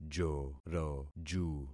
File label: "joroju", concat syllables; Affixing experiment